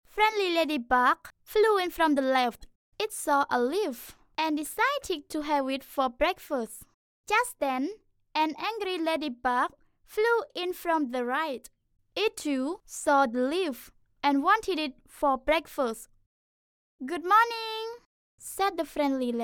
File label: Female English; Cambodia